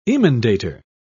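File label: "emendator", British English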